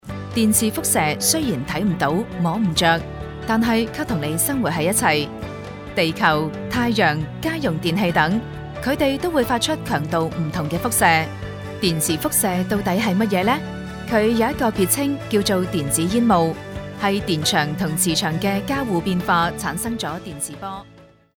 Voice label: Cantonese Online Learning